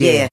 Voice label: "yeah", group of men